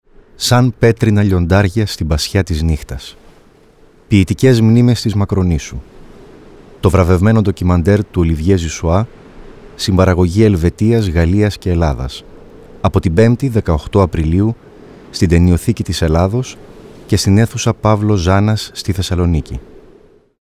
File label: RADIO SPOT